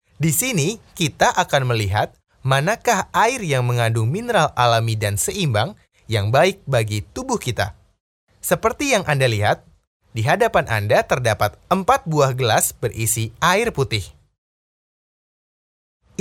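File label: Indonesian, male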